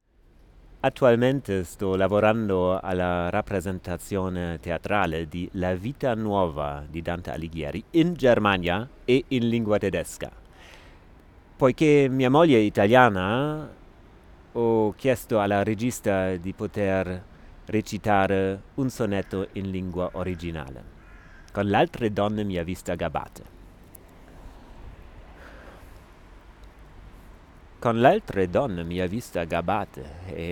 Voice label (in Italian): Italiano